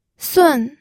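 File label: "sun4", Mandarin Chinese pinyin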